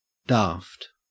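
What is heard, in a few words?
"daft", Australian English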